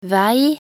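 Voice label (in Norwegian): "vei", Norwegian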